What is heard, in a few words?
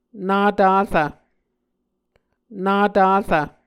"natarv", Muscogee